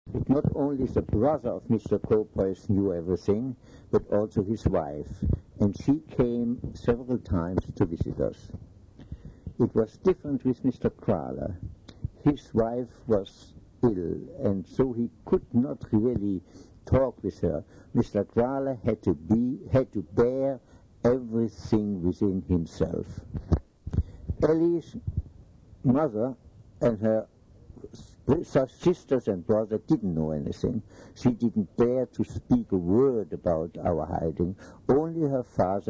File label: Conversation; Diary Anne Frank